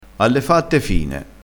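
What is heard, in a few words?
"alle fatte fine", Italian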